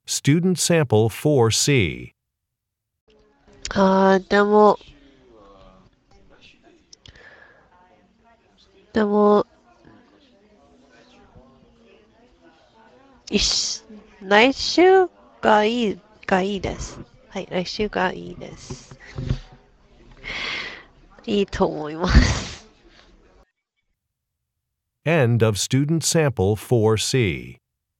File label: japanese conversation